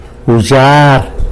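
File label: "spoon", Tlacolula Zapotec; wzhyar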